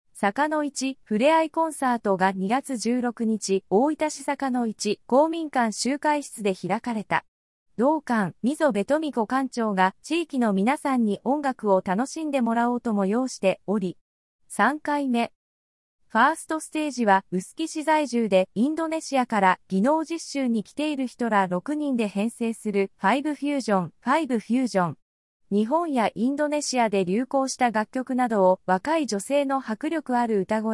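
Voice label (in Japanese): speech, 合成音声; 和気あいあいとしたコンサート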